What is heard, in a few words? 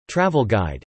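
"travel guide" spoken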